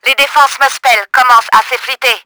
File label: CRACKS, french; Battle Engine Aquila; XBOX